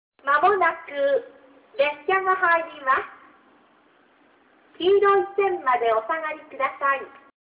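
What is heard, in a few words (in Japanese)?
全ホーム接近放送, 中津駅 旧放送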